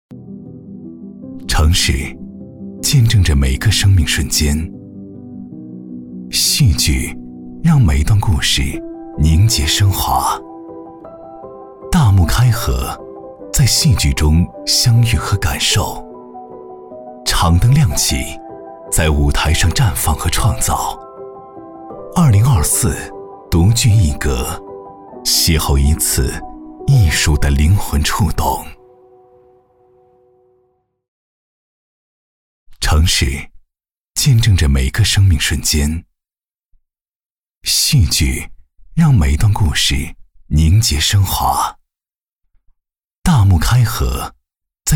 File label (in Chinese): 男, 宣传片, 戏剧节